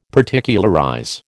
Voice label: "Particularize", American